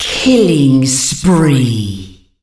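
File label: female spree; Monster Hunt 2 Lite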